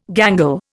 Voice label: "gangle", Indian

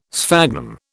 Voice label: "sphagnum", British

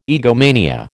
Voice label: "egomania", American

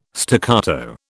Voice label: "staccato", British

